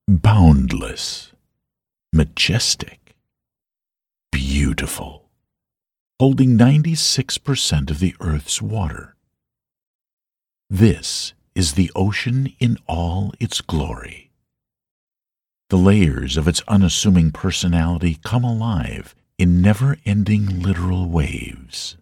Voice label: American English Voiceover

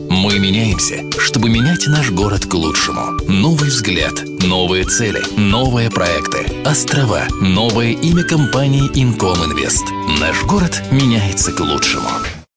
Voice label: Warm Dee

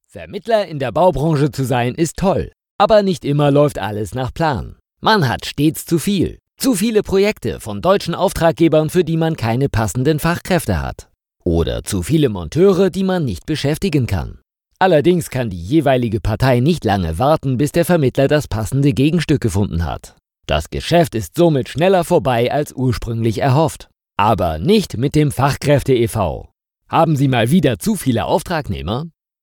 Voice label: German Voice Over sample

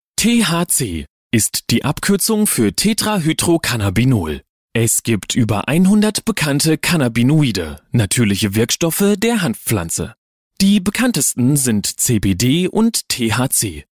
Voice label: English Voice Over

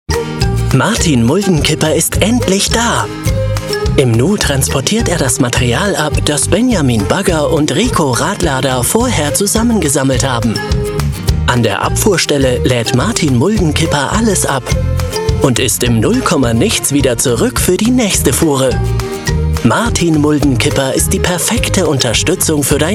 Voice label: Kids Tv

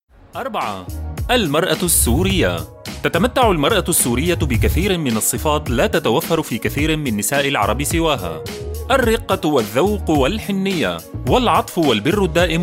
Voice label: Arabic Voiceover

